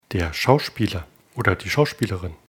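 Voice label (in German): "Schauspieler", German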